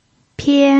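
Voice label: "pian", pinyin, tone 1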